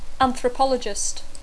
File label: "anthropologist", English